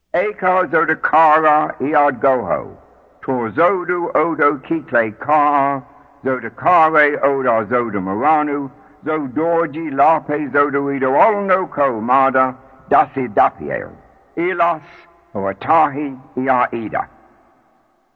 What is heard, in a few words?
Short Enochian Call (English); Enochian Magick; The World of Enochian Magick; Israel Regardie